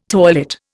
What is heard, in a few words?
"toilet", Indian